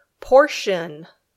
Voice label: "portion", English

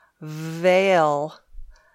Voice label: "veil", English

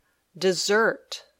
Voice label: "dessert", English

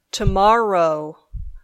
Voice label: "tomorrow", English

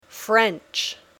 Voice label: French

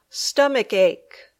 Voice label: "stomachache", English

